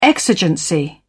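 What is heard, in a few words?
"exigency", British English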